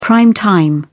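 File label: "prime time", British English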